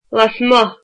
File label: "outside", Irish